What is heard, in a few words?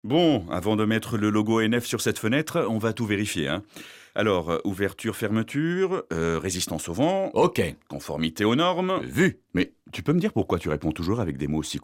Spot radio; FENETRES